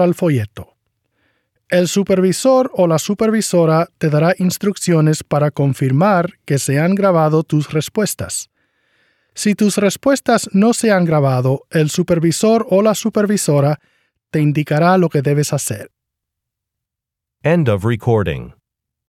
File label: spanish directions end of part b